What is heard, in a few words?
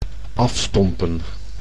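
"afstompen", Dutch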